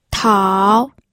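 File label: "tao3", Mandarin Chinese pinyin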